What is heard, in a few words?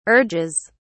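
"urges", British English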